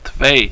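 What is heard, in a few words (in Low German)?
"twee", Plattdüütsch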